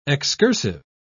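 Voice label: "excursive", British English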